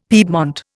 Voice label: "piedmont", Indian; Dictionary